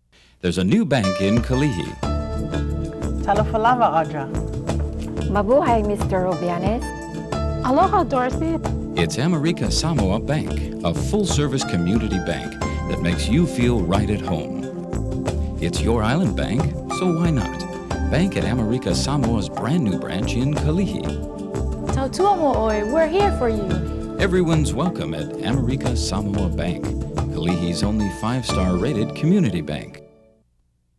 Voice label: TV Spot Voiceover; Amerika Samoa Bank